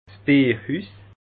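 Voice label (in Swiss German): "bierhus", Bas Rhin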